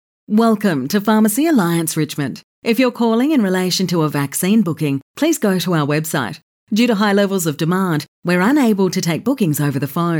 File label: IVR; Alliance